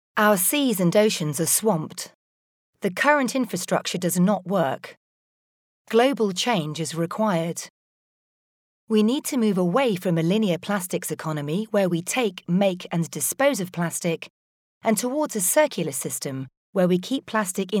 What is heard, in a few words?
Voiceover